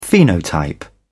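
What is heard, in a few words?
"phenotype", British English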